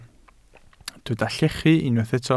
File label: "llechu", Welsh